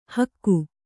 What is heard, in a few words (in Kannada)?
"ಹಿಕ್ಕೆ", Kannada